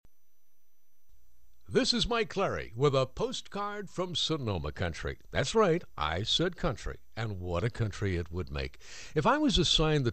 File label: Promo; Sonoma Country